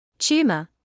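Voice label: "tumour", British English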